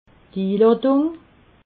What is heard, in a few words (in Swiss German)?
"iladung", alsace